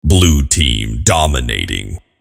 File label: blue dominating